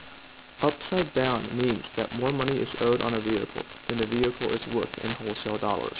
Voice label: noisy